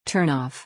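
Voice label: "turn off", English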